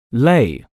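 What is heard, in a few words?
"lay", English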